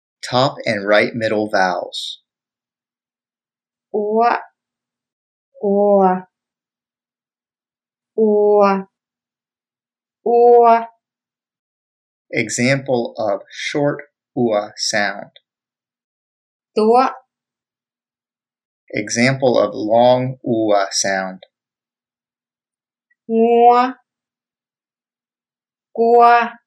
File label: Mid Vowels